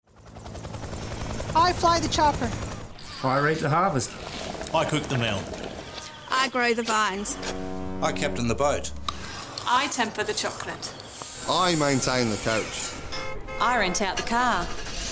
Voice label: Radio Ad; Toursim Works